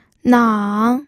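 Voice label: "nang", third tone, pinyin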